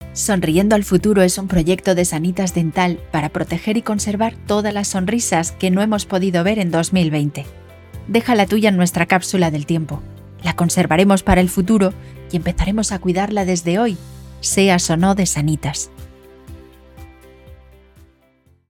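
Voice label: comercial, Spanish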